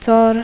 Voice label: laminal alveolar, temne